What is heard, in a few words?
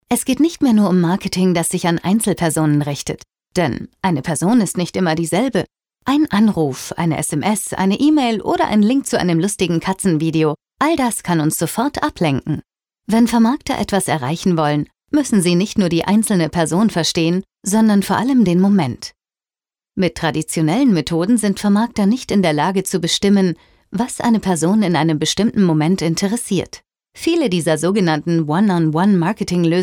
german female corporate